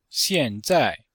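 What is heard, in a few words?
"xian4zai4", Chinese; Cours de Chinois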